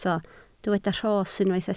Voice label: "rhos", Welsh